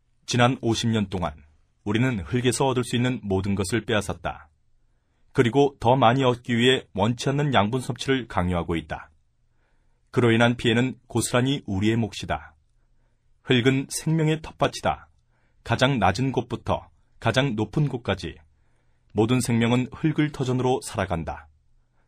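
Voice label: Korean, male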